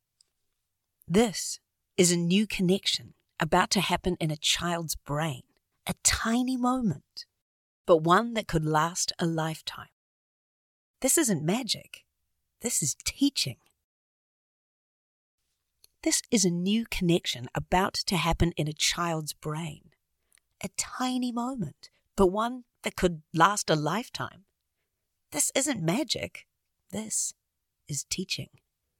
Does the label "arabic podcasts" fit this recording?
No